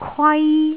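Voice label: "kwai1", Cantonese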